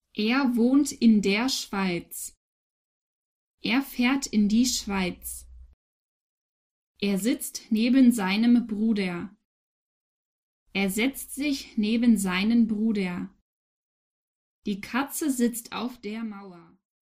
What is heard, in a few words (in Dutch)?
Voorbeeld Duitse Grammatica opname